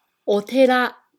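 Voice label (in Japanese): "お寺", Japanese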